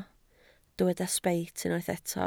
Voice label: "sbeit", Welsh